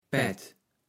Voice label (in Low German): "Pädd", kaevels platt